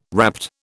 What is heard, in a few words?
"rapt", British